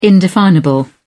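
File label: "indefinable", British English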